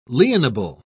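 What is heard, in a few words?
"lienable", British English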